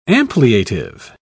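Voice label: "ampliative", British English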